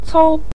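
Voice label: "cou" neutral tone, Mandarin Chinese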